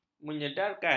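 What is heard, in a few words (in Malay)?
"menyedarkan", Malay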